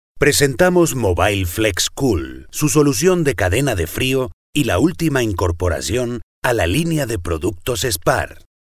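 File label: spanish male commercial; voice demos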